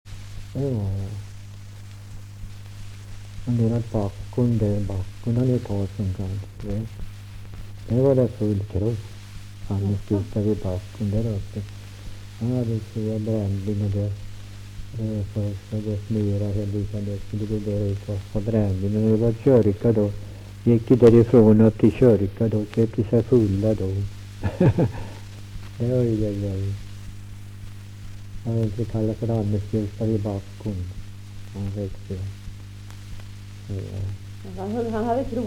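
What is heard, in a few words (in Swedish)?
Ramundeboda Närke, dialekter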